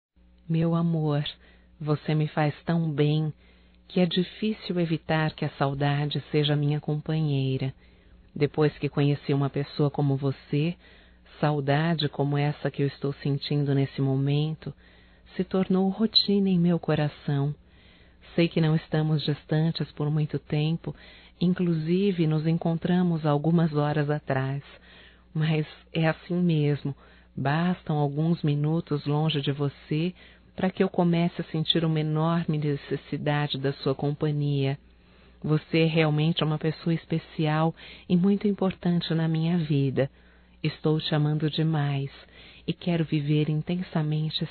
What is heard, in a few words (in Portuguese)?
SAUDADES(F), Românticas fem